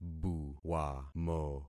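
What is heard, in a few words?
"buwamo", concatenated syllables; Affixing experiment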